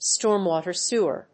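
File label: "storm water sewer", English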